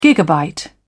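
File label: "gigabyte", British English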